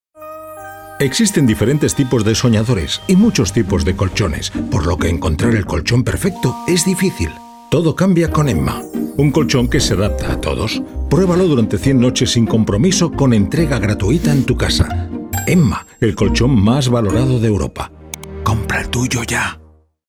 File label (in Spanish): Anuncio TV; LOFT VOICES